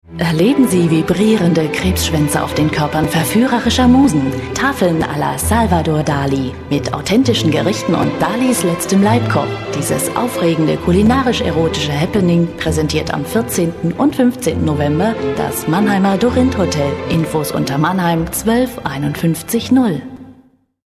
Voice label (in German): Sprecherin Werbung